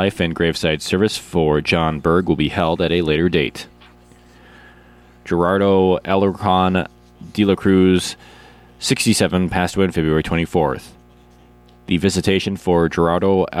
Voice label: Funeral Announcements